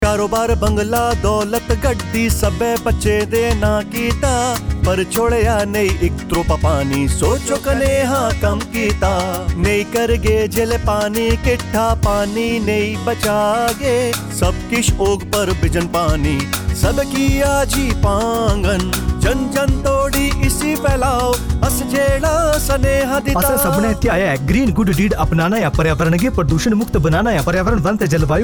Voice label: dogri; Water Conservation